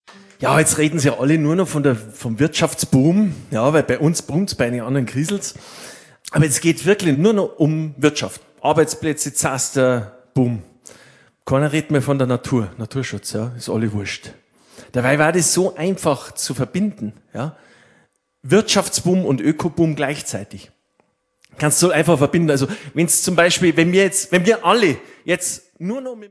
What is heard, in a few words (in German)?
Ansage